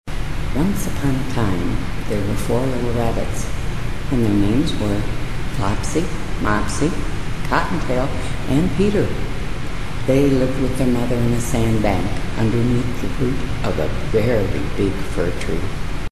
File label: speech 0db SNR 1500ms RT; classroom